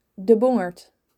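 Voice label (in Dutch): "De Bongerd", Dutch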